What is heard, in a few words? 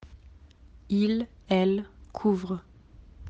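"couvrir", French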